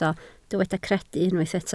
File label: "credu", Welsh